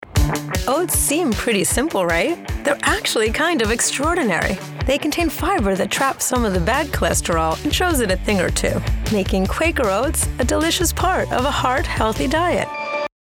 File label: oats commercial; mixdown